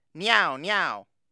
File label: japanese cat